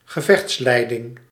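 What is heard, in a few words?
"gevechtsleiding", Dutch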